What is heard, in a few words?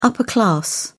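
"upper class", British English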